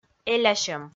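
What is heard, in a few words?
"элясьӧм", Komi, female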